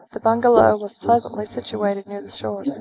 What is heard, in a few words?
speech est